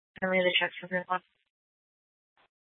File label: Scottsdale PD Sth Dispatch, scanner